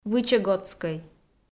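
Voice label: "вычегодскӧй", Komi, female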